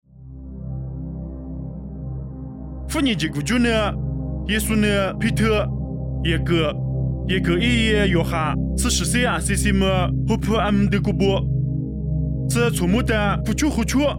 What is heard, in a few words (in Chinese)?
凉山彝语; 以色列塔博尔山; nuosu